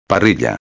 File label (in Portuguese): "parrilla", espanhol